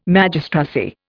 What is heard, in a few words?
"Magistracy", Indian